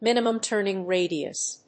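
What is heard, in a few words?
"minimum turning radius", English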